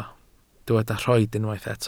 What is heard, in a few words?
"rhoid", welsh